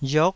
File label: "riok9", taiwanese syllable